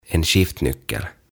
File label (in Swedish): "En skiftnyckel" "Jakoavain"